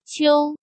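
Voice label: "Qiū", Chinese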